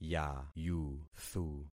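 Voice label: "yayuthu", concatenated syllables; Affixing experiment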